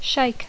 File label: "shake", English